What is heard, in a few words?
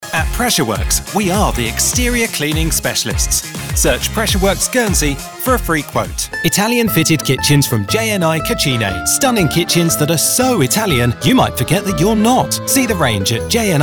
Radio Ads